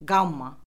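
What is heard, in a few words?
"qamma", Azerbaijani; Orfoqrafiya Lüğəti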